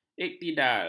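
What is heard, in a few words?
"iktidal", Malay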